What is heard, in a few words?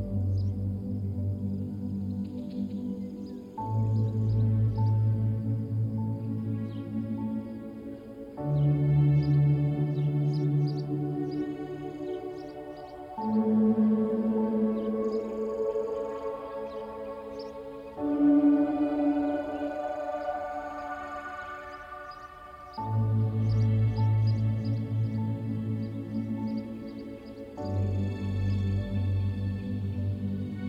Mindful Meditation forest bathing